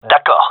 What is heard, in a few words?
agree, gendarmerie male; vo